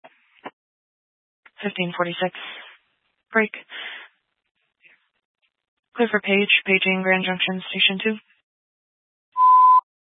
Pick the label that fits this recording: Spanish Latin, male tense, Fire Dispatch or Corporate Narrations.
Fire Dispatch